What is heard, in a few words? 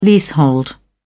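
"leasehold", English, British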